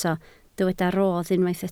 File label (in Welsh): "rodd", Welsh